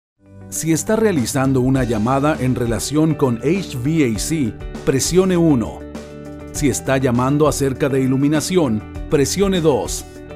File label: Phone